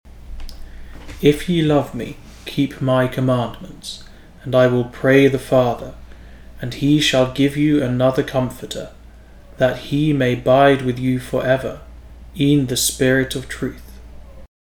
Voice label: modern british english spoken; if ye love me